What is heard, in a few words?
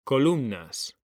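"COLUMNAS", Spanish; mekanta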